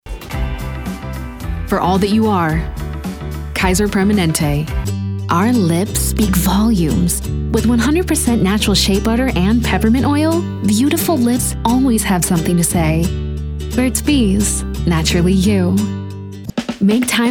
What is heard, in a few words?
Commercial, female; Internetjock